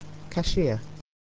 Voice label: "cashier", English